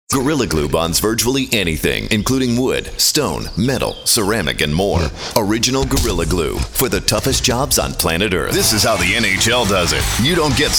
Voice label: Commercial